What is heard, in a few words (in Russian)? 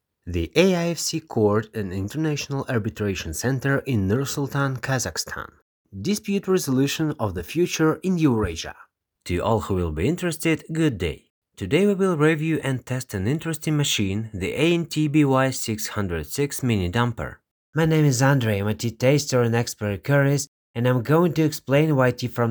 anglijskij